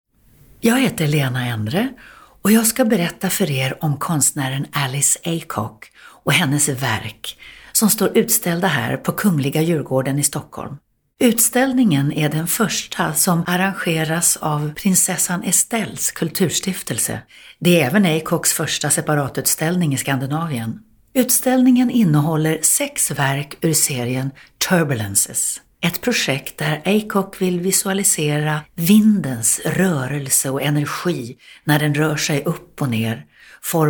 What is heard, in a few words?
AUDIOGUIDE intro SWE